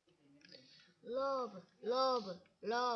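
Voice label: "rub", spoken word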